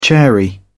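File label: "chary", British English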